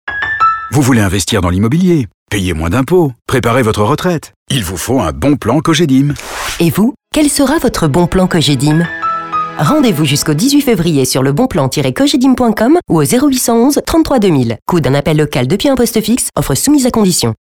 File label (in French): Pub radio medium; cogedim